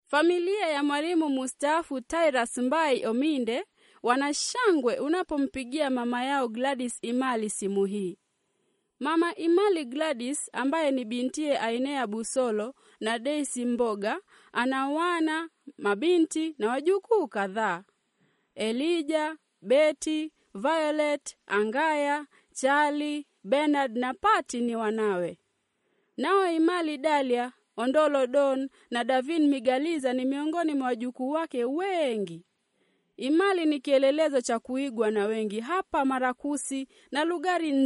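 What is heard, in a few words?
Swahili, female, explainer; voice-over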